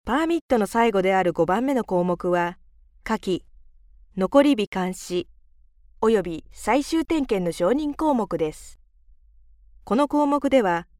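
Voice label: Japanese, female, narration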